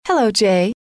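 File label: "hello", female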